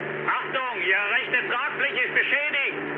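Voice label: German right wing mood low, speech; War Thunder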